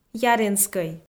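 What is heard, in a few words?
"яренскӧй", Komi, female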